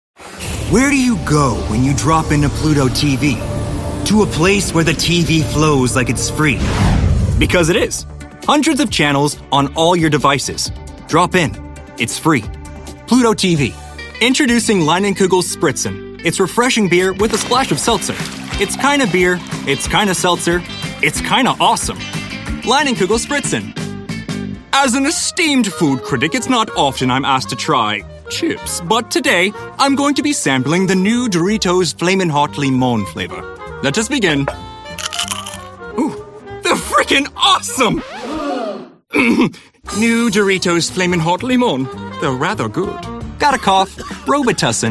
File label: Commercial